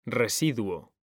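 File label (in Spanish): "RESIDUO", Spanish; mekanta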